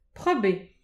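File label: "prop eo", Breton; petit precis de prononciation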